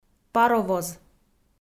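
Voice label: "паровоз", Komi, female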